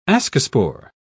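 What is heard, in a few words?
"ascospore", British English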